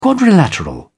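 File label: "quadrilateral", English, British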